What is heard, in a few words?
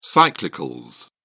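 "cyclicals", British English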